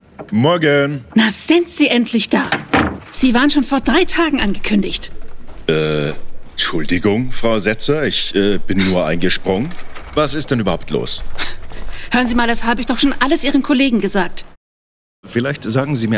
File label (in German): Hoerspiel; Hoerspiel demo